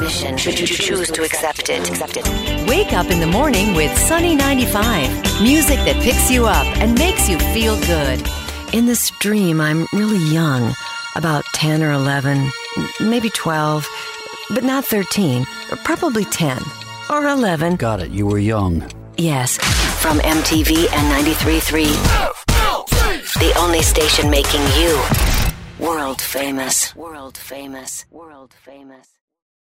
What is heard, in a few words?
Radio Imaging